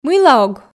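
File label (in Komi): мыйла ог, Komi, female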